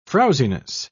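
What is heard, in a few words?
"frowziness", British English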